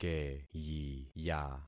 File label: "keyiya", concat syllables; Affixing experiment